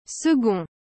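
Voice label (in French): "second", French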